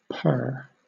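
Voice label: "purr", British English; pronunciations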